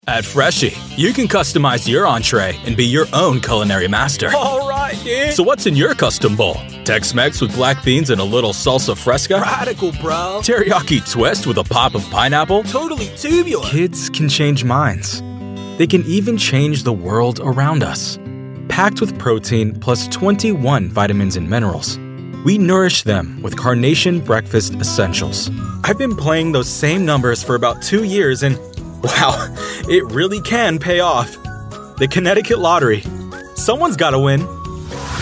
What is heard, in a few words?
american voice commercial